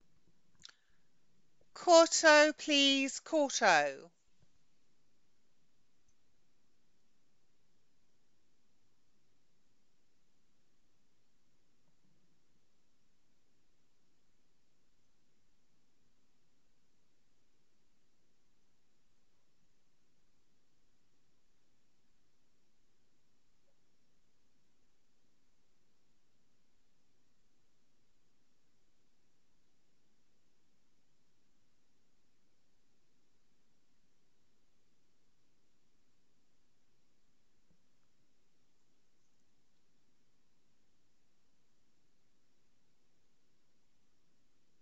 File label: Voice over for Open Walk Corto Classes